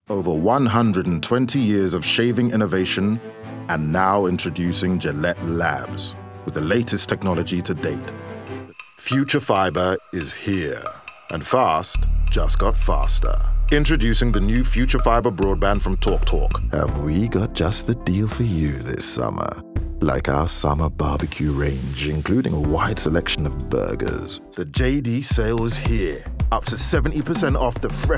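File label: Commercial Reel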